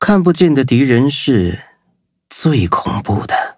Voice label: loading, zh-hant; cookie run